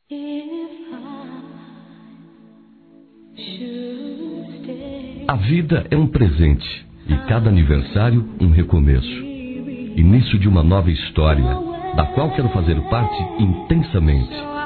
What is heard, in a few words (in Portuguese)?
Romântico Masculino